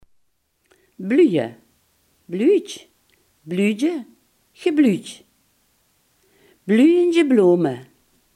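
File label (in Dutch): "bloeien" Limburgish dialect word; bloeien, bluje (bluutj, bluudje, gebluudj)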